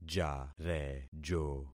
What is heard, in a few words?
"jarejo", concat syllables; Affixing experiment